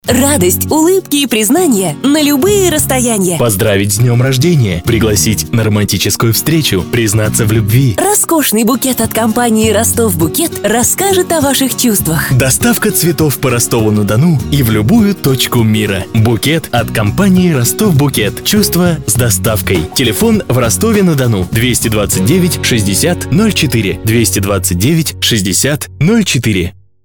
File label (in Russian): reklamnyiy diktor radiorolika dlya magazina; Kone a Lyubo